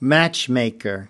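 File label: "matchmaker", us, male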